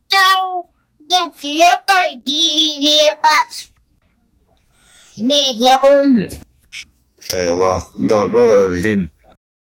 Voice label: a little kid telling his